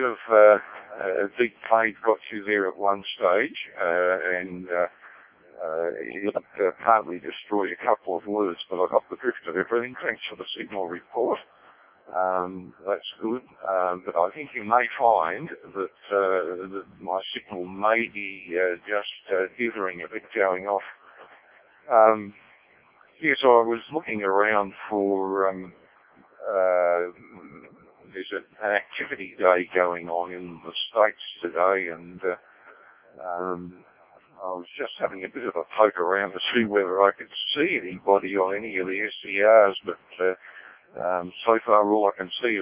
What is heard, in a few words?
decoded speech, parrot